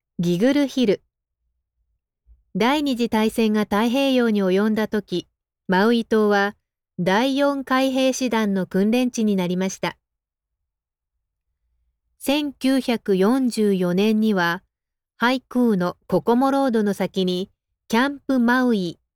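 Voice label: JPN, Japanese; Giggle Hill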